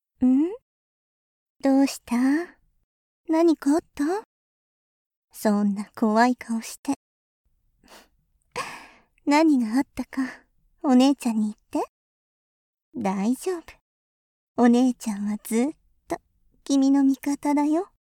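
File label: gentle older sister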